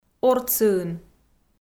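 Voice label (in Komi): "ортсыын", Komi, female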